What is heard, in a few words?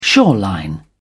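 "shoreline", British English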